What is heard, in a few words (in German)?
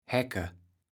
"Häcke", Platt